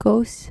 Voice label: "kous", Dutch